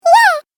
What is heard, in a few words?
Cartoon Game Voice Yeah